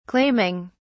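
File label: "claiming", British English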